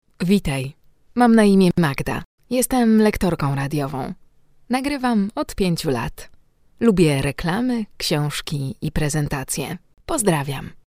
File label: polish female